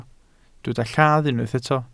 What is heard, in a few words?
"lladd", welsh